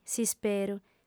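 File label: "s'isperu", female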